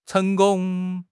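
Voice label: "cheng2 gong1", Mandarin Chinese; HSK4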